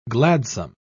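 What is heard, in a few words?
"gladsome", English, British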